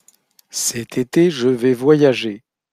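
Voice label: pronunciation "cet ete je vais voyager", French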